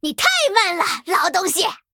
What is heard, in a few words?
Female Pirate Attack